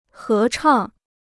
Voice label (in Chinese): "合唱", Chinese